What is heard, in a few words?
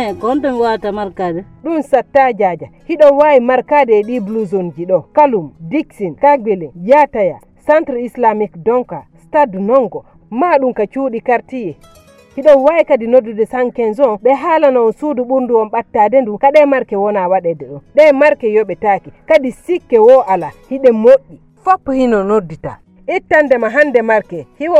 Spot COVID vaccination poular